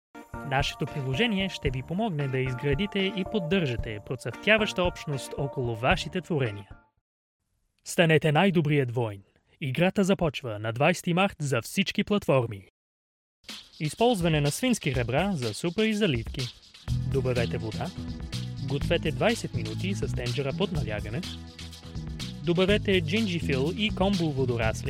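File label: BG General, Bulgarian; GoLocalise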